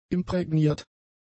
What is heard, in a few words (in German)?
"imprägniert", German; adjectives